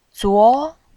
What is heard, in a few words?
"zuo2", voice